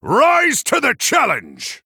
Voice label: Male Human Play

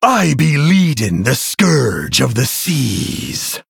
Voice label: Male Human Pirate Day

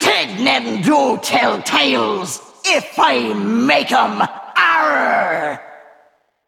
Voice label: Female Val'kyr pirate day

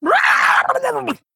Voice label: Male Murloc Death

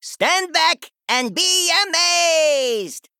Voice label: Male Gnome Play

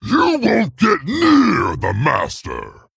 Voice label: Male Tauren Play

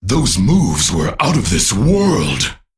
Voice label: Male Crystal Golem Victory

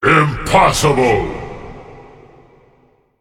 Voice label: Male Demon Error Generic

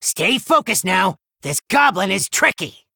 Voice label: Male Gnome

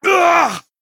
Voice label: Male Blood Elf Death

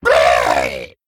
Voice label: Male Kobold Death

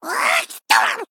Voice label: Male Sprite Attack

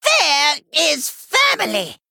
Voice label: Male Gnome Attack

